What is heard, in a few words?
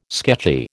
"sketchy", American